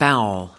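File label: "bowel", "bowels", US English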